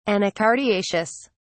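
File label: "anacardiaceous", British English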